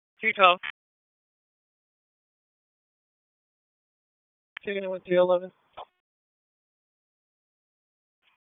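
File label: Police District Dispatch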